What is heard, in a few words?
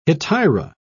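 "hetaira", English, British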